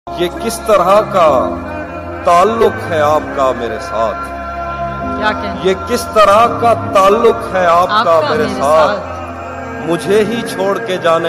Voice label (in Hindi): Shayari Ringtone